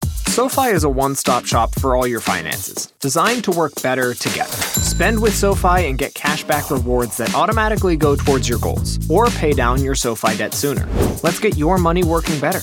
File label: Commercial